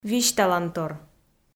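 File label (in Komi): "висьталантор", Komi, female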